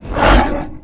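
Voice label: MONSTER ZOMBIE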